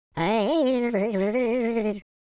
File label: scared, London Cabbie; speech